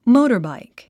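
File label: "motorbike", English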